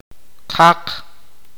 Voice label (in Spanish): "seis", awakateko